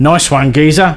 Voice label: Thanks, male; svencoop

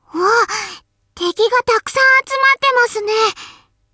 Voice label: look out, voice commands Anime Loli; svencoop

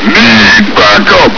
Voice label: backup, voice commands, grunt; svencoop

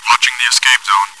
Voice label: chitchat, bot, voice commands; svencoop

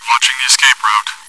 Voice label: chitchat, bot, voice commands; svencoop

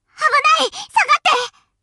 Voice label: run away, voice commands Anime Loli; svencoop

